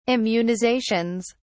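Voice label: "immunizations", British English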